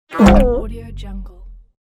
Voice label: funny accent

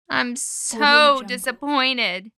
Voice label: female saying im so disappointed

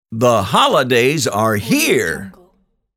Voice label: male voice the holidays are here

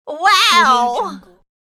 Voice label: mature female cartoonish wow

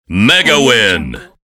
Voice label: announcer saying mega win

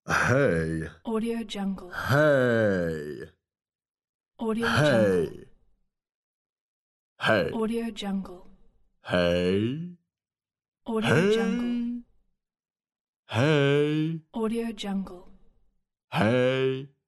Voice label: male voice hey; mrsoundfx

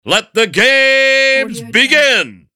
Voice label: announcer let the games begin

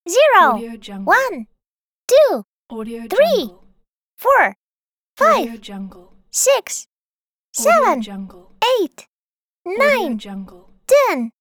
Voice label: numbers from 0 to 10 cartoon child voice

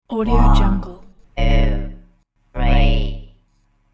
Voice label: robotic vox one two three

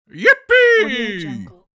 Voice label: male old man yippee; applehillstudios

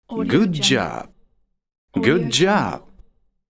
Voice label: male good job

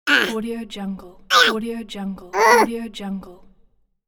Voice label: cartoon voice "ahh"

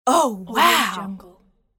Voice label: teenage girl oh wow

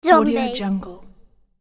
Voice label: "zombie", boy

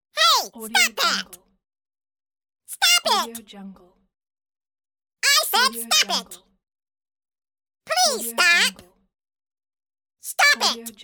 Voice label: cartoon character saying stop phrases

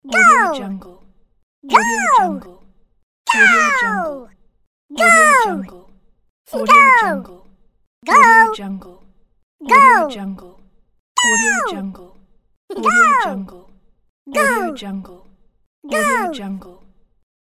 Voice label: cartoon voice go pack

